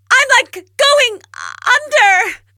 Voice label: low; awesomenauts